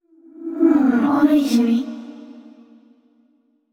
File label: VO thinking; yamiko